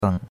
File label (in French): "beng3", chinois; Cours de Chinois